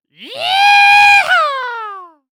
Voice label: Human Cowboy Say "Yee Haw" Voice